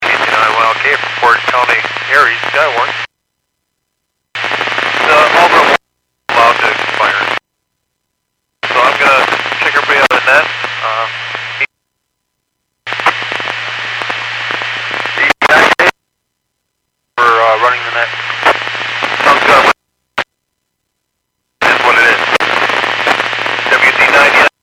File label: Skywarn 146.67; Stevens Point